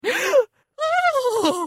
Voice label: prankster voice